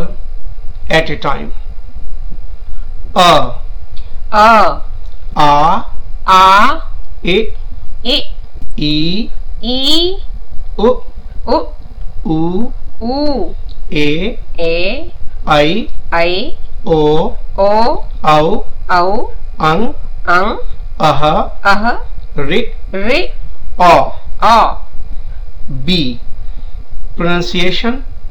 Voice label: Vowels, hindi; hindi conversations